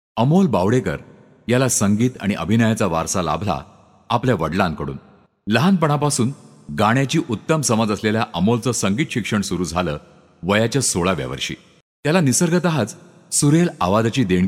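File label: Marathi, General; GoLocalise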